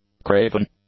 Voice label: "craven", English; adjectives